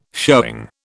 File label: "showing", British